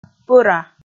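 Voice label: "пӧра", Komi, female; kpv audio dict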